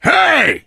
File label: initial meeting, survivor voice biker